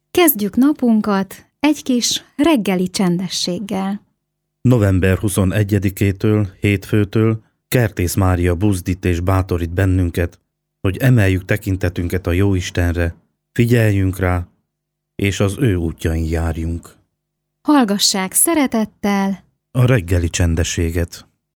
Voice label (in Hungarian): csendesseg spot